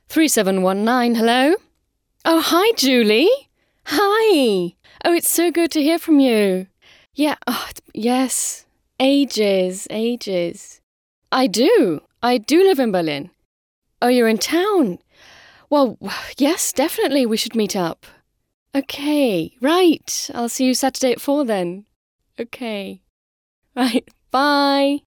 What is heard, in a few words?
Standard English UK Telefonat